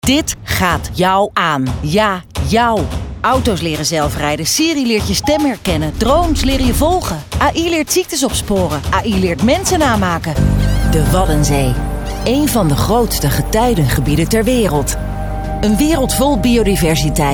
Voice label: urgent

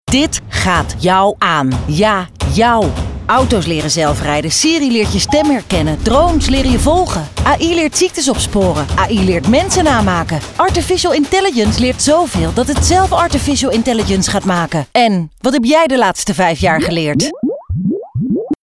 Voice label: NL overtuigend

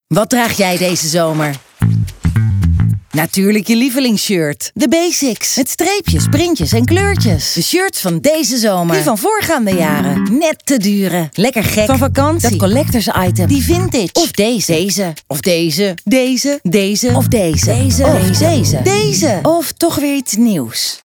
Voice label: NL fris

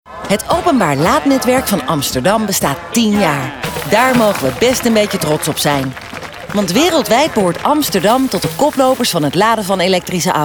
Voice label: NL opgewekt

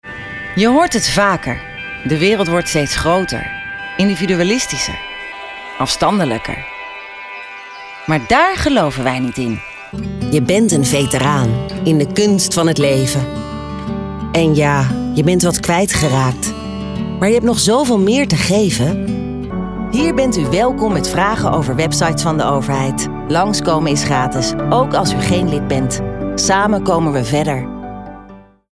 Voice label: meelevend

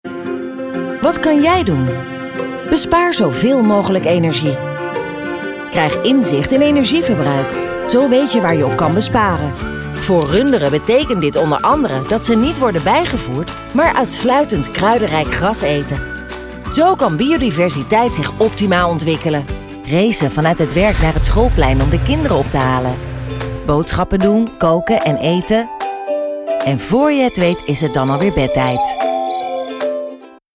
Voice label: vriendelijk

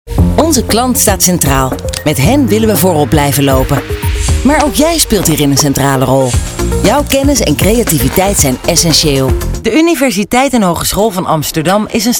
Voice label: zakelijk

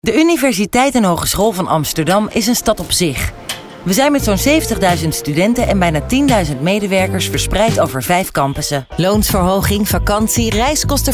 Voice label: naturel

